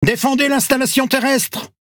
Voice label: Speech Quick Commands Ally Building, FR; World Of Warships

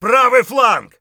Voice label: flank right, voice; Escape from Tarkov

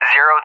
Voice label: "0", ENG, AWACS; Digits; DCS

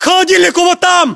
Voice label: order cover fridge, ru; localized english

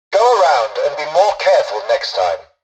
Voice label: tutorial dialogue, english; War Thunder

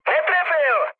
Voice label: bomb failure, speech cze, mood high; War Thunder

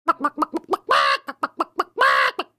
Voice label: Speech Quick Commands Caramba; World Of Warships

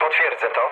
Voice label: player killed enemy mood low, speech pol

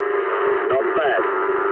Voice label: eng trick mood high, speech eng; War Thunder

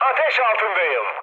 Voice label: on fire, mood high, speech tur; War Thunder

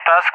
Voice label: "Tusk", ENG; CallSign; DCS; Allied Flight

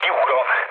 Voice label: bailout, speech hun; War Thunder

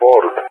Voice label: "Ford", ENG; Allied Flight; CallSign; DCS

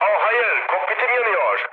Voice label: on fire, mood med, speech tur; War Thunder

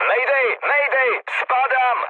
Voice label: aircraft destroyed mood med, speech pol

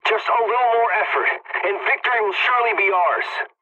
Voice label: pilot tickets lead continue ally, english; War Thunder

